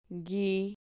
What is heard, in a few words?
"gui", Spanish syllable